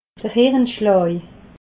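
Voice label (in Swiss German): "hirnschlaa", Alsatian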